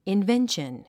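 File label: "invention", English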